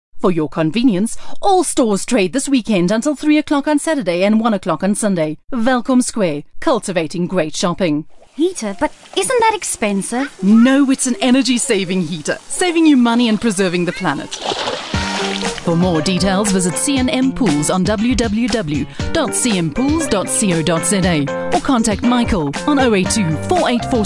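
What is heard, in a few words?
English South African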